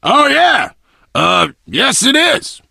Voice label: initial meeting, survivor voice biker